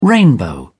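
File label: "rainbow", British English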